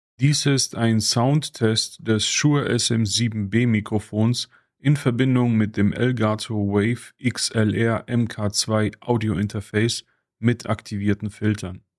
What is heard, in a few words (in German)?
Elgato WAVE XLR MK.2 SHURE SM7B ohne Filter